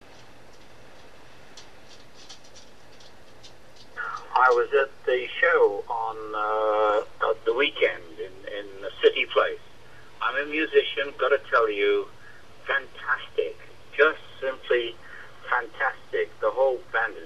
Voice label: Voice Mail; The Brass Evolution